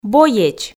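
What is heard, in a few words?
"боеч", Komi, female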